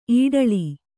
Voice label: "ಇಟ್ಲ", Kannada